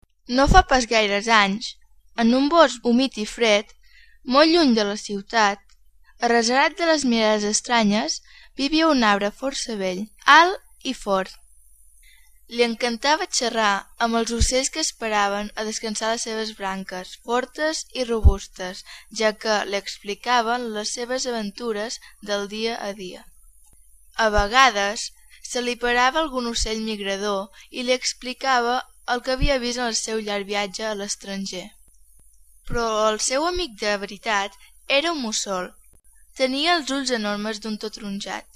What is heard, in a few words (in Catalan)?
locucio